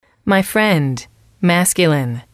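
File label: "friend M", English, female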